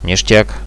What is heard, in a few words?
nice shoot, radio bot; Reallite